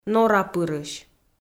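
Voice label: "норапырысь", Komi-Zyrian, female; audio dict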